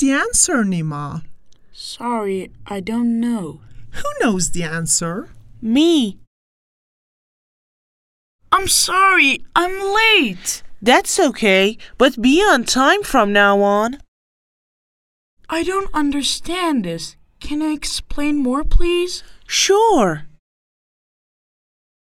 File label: conversation